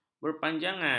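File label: "berpanjangan", Malay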